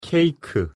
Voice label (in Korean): "케이크", Korean